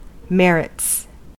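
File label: "merits", American English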